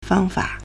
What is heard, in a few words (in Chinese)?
"fang1fa3", Chinese